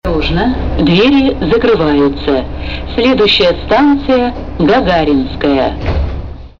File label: female